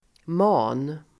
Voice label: "man", Swedish